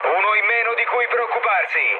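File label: Italian player killed enemy mood low, speech; War Thunder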